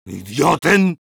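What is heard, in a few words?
Medic negative vocalization, Spanish; ¡Idiotem!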